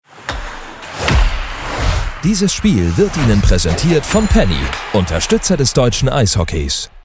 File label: presenter